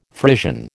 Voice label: "Frisian", American